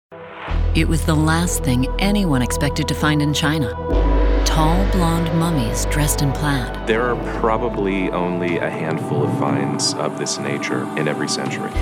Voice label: narration